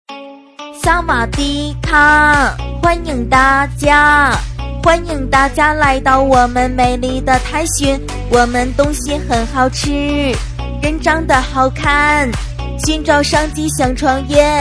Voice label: 另类 萨瓦迪卡~欢迎大家

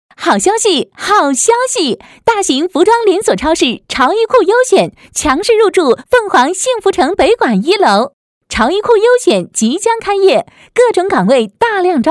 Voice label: 超市开业样音

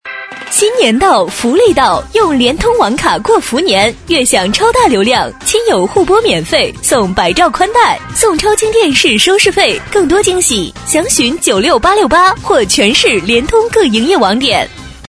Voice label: 女声 广告促销 联通

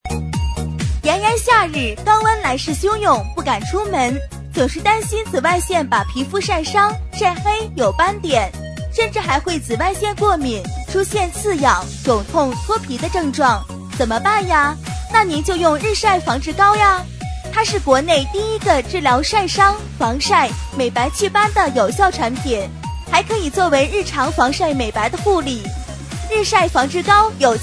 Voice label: 促销 日晒防治膏

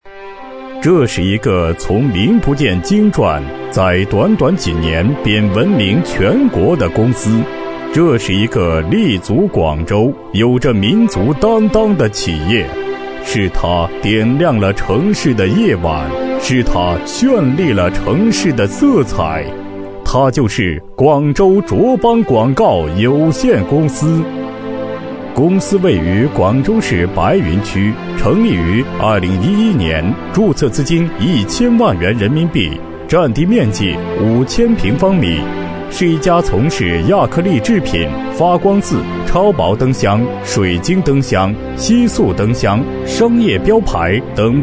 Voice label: 男声企业宣传

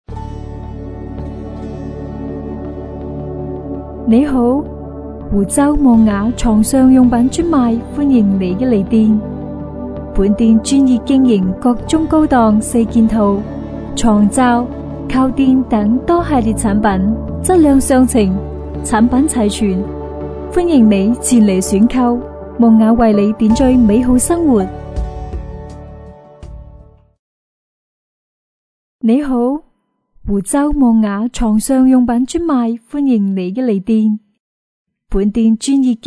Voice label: 女声彩铃优雅 床上用品彩铃粤语

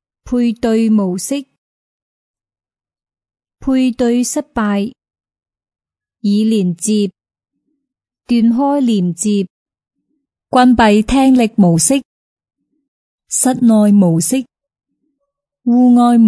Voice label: 女声 系统播报 稳重 音响模式播报

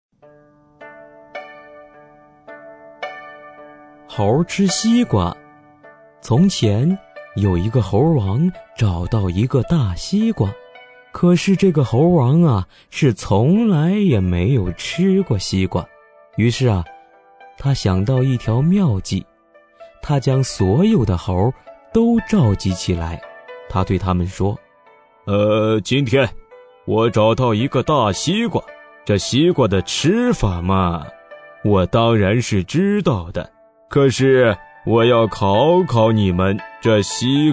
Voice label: 男声儿童绘本 猴吃西瓜

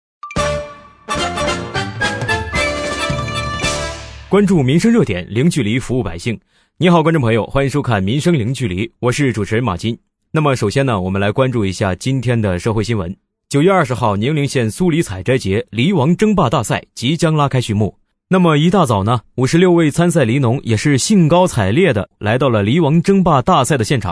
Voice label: 男声【新闻节目】电视节目; 生活零距离

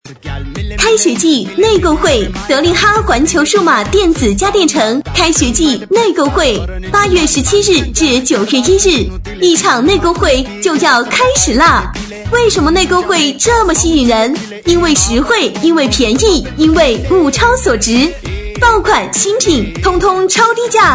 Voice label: 女声家电城开学活动

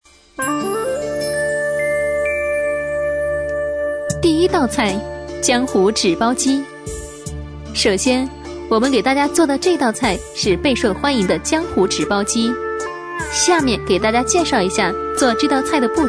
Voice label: 女声电台美食介绍 （舒缓温柔）

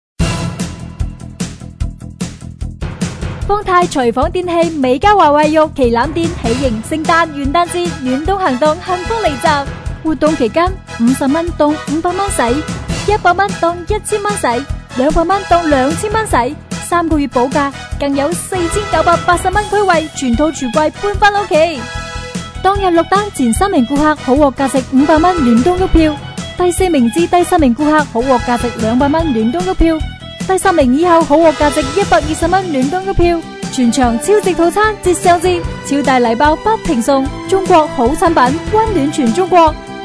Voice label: 女声 粤语 促销 激情 电器 促销; 方太

